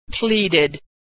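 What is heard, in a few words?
"pleaded", American English; verb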